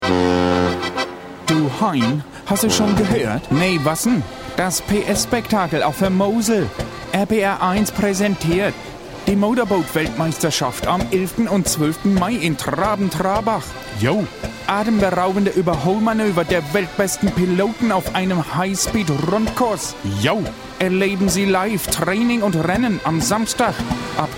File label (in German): Plattdeutsch, sprecher